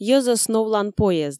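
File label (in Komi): йӧзӧс новлан поезд, Komi, female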